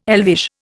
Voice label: "elvish", Indian